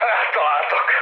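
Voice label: Hungarian aircraft destroyed mood high, speech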